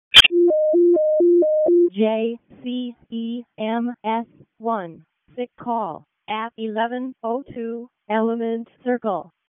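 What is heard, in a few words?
Wake County EMS ALERT, p25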